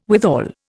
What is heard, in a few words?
"withal", Indian